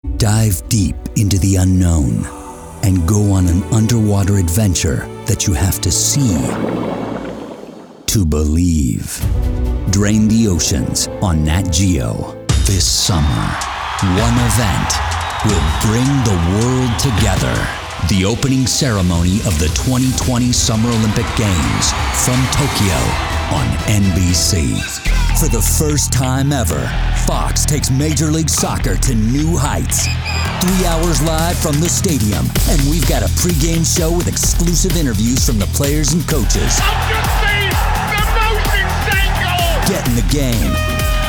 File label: Promo - Mysterious, Warm, Intense, Sarcastic, Voice of God, Storyteller, Edgy